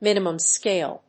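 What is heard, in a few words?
"minimum scale", English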